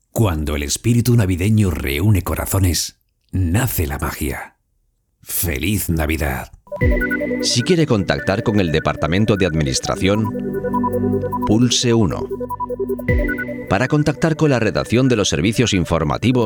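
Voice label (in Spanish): otros España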